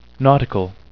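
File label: "nautical", English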